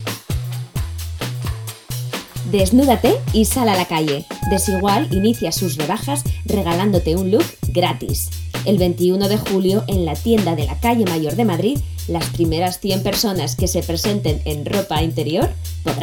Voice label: female spanish castilian corporate explainer; desigual

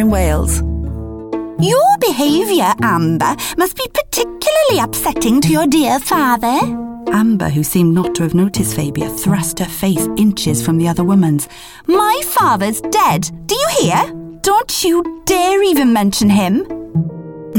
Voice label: female English Welsh accent compilation; devine voices

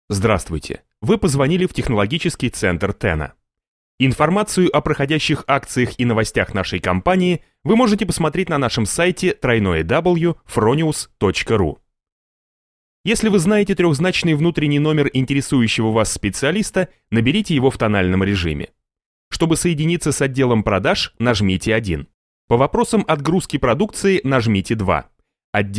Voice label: male russian ivr

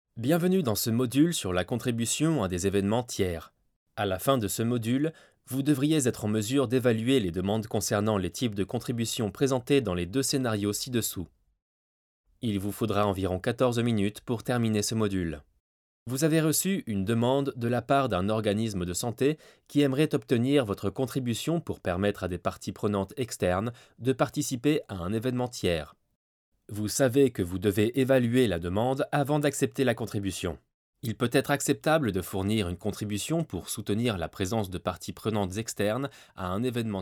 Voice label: male french e-learning

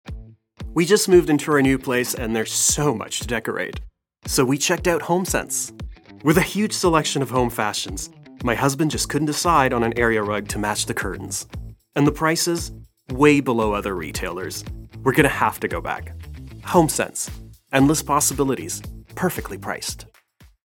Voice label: male canadian accent; english canadian

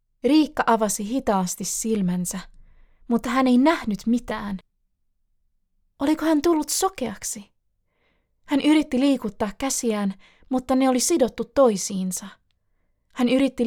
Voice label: female finnish audiobook narration

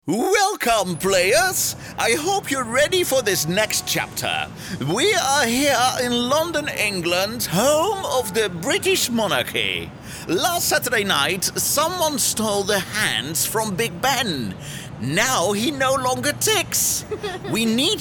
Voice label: male english with dutch accent gaming compilation

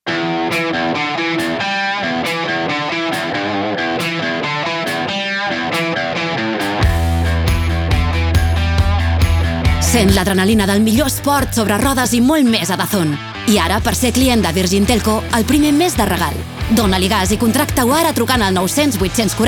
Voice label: female catalan promo; spanish castilian; virgin

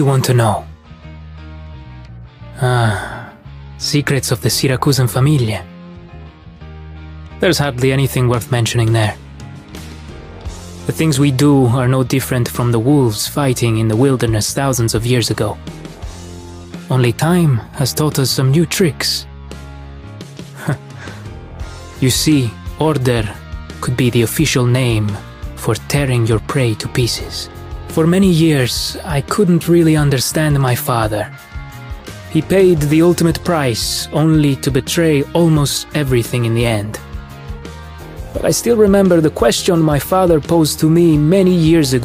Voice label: male english with italian accent; vigil; arknights

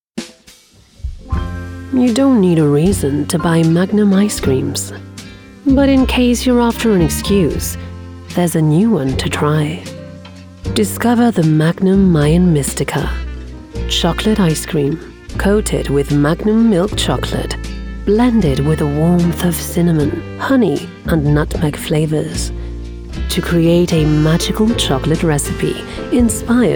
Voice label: transgender female english commercial; magnum

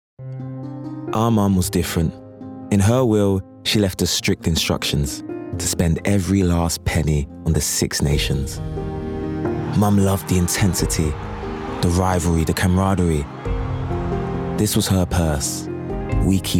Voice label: male english commercial; guinness; southern and northern england

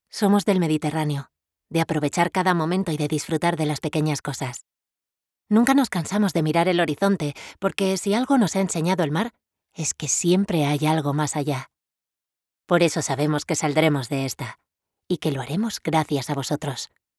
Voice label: female spanish castilian commercial

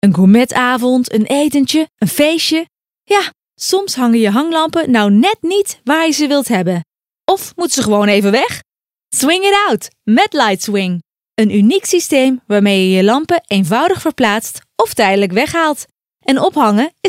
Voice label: female dutch compilation; Devine Voices

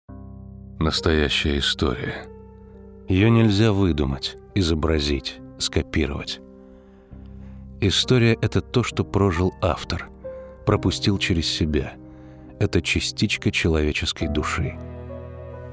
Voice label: male, Russian, commercial

